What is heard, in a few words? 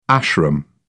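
"ashram", English, British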